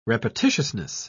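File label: "repetitiousness", British English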